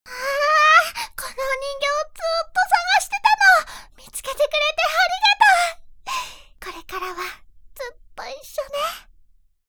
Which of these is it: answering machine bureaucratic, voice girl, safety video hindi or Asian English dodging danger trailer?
voice girl